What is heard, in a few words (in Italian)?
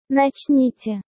Russo